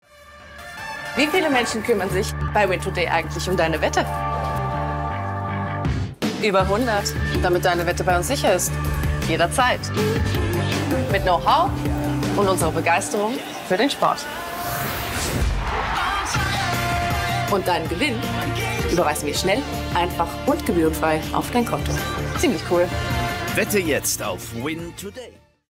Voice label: female Austrian commercial; german and swiss german; win2day

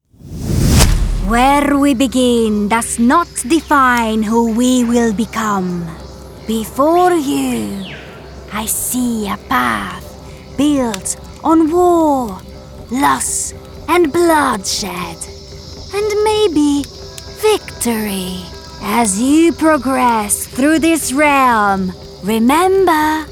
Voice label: female Italian English with Italian accent gaming; oracle; devine voices

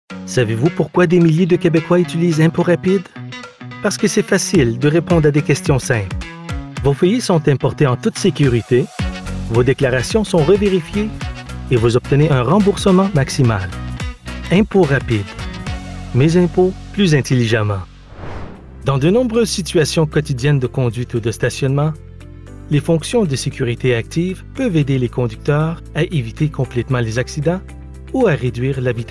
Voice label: male french canadian commercial; impot rapide

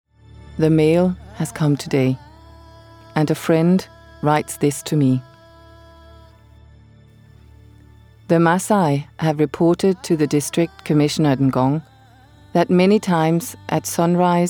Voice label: female english with danish accent compilation; devine voices

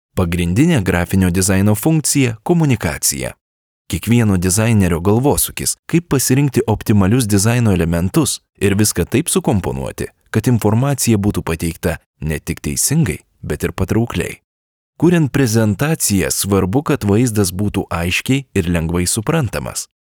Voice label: male, Lithuanian, e-learning; voices; devine voices

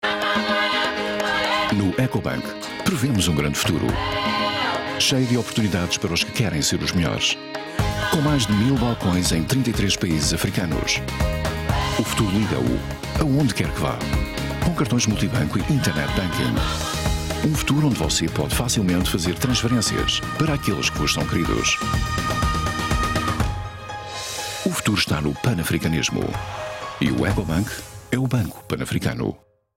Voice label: male portuguese commercial; ecobank; portuguese european

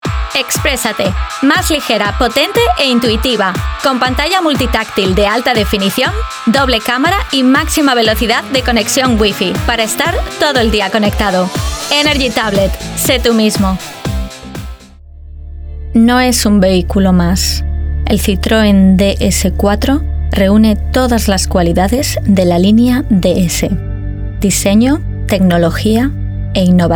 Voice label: female Spanish Castilian commercial; galician and spanish castilian

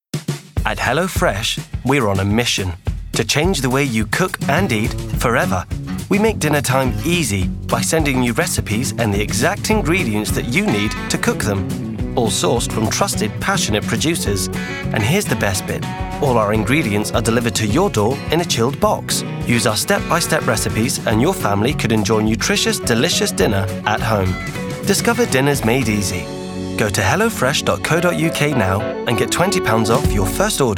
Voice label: male english english rp accent commercial; hello fresh

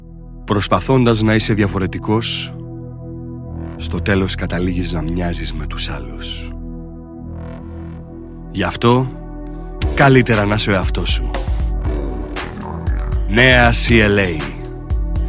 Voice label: male greek commercial; mercedes-benz

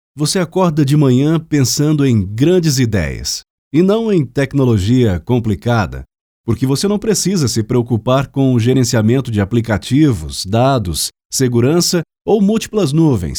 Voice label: voices male brazilian portuguese compilation

